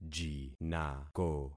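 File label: "jinako", concatenated syllables; Affixing experiment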